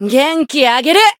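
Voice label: heal, Japanese; mighty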